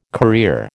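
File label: "career", American English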